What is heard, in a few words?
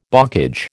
"bocage", American